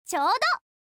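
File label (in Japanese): "ちょうど", Japanese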